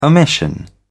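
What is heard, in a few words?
"omission", English, British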